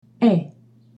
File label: e grave, French accents